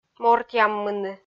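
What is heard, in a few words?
"мортъяммыны" Komi, female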